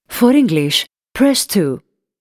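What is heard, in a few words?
for english press, answering machine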